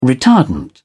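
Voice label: "retardant", English, British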